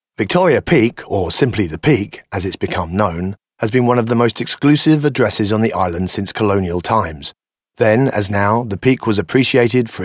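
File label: British male corporate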